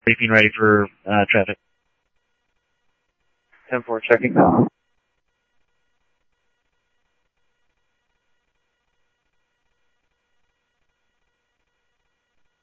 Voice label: Mesa Simulcast, MESA PD, scanner audio

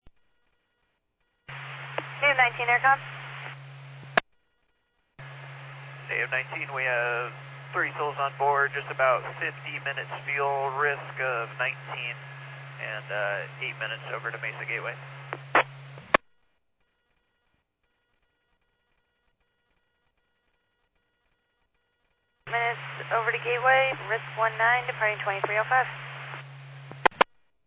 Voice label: Medevac, scanner; NativeAirAmb

